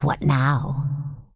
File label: Undead Female Magic NPC Greeting